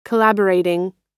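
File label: "collaborating", American English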